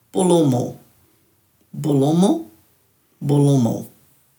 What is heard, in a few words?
"bulumu-", Dhurga